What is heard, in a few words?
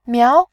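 "miao", Mandarin, tone 2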